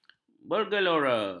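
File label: "bergelora", Malay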